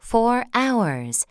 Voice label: english 6 hour